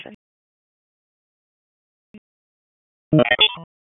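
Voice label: Police Southwest District Tac, radio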